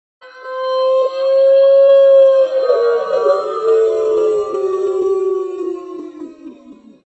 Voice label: slow ooooooooof; roblox

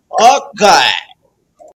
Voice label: ok energetic

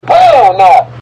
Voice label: hell naw dog loud

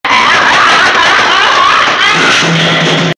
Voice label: screaming dalek

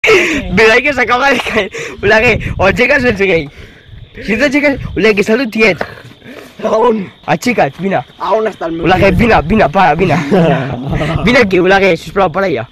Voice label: autotun

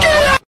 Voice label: guy

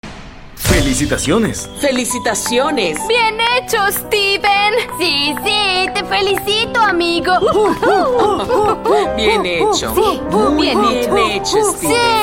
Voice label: echo

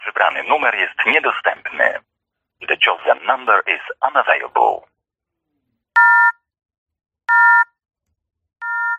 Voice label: Polish phone cannot be reached

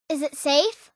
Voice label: safe, female

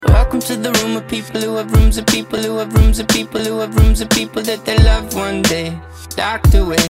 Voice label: asmr; mommy

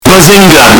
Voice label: bazinga distorted

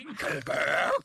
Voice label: German; dinkel berg

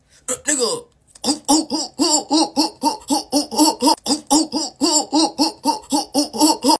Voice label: impression

